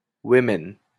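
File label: "women", Canadian English; pronunciations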